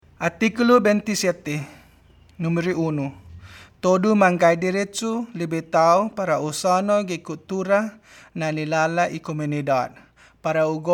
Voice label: Chamorro

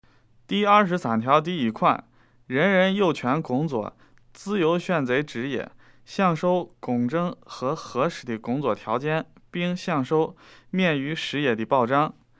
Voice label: Mandarin

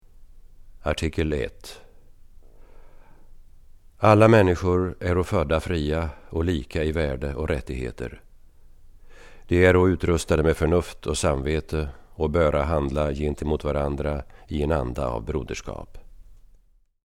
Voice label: Swedish

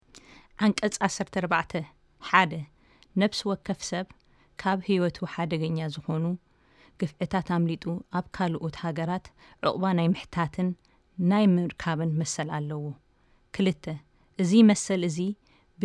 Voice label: Tigrinya

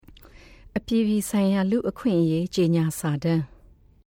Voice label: Burmese

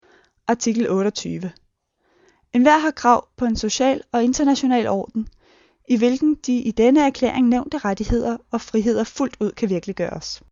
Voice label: Danish

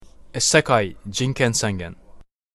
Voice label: title Japanese

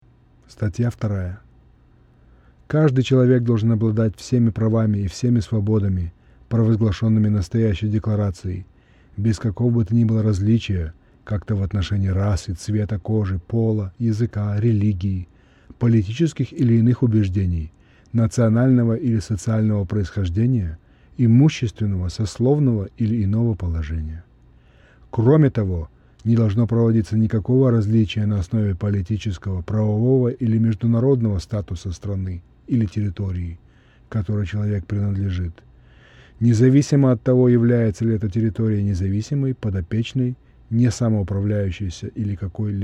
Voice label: Russian

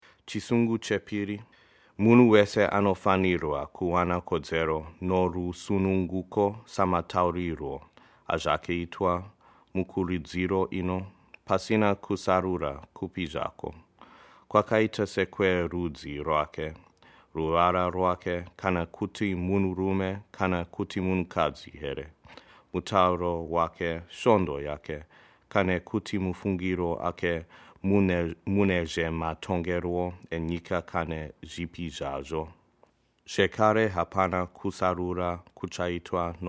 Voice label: Shona

